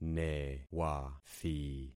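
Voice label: "newathi", concatenated syllables; Affixing experiment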